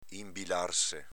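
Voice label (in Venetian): "inbilarse", Veneto dialect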